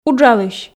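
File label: "уджалысь", Komi, female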